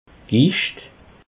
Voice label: "gicht", alsace

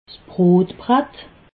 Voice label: "brottbratt", alsace

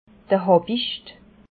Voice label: "habicht", Alsace

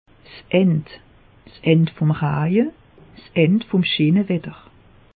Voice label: "end", alsace; meteo

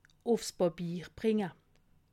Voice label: "bringe", alsace

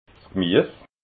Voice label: "gmies", alsace

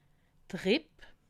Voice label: "ripp", alsace

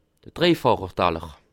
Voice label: "taller", alsace

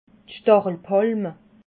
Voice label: "stachelpalme", alsace

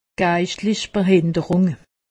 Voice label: "geistlichbehinderung", alsace; medecine

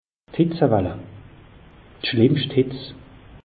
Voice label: "hitz wall", alsace; meteo

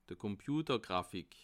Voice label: "komputergrafik", alsace

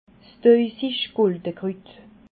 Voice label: "tauisiggulgekrut", alsace

